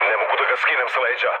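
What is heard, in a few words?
Serbian aircraft damaged mood low, speech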